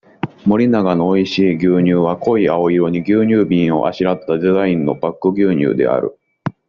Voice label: japanese audio